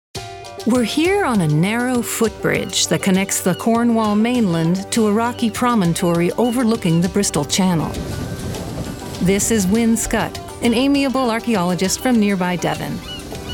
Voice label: Narration; voice over